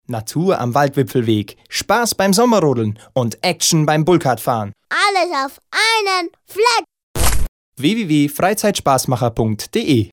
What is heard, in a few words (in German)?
Werbung Reminder; Freizeitspassmacher St Englmar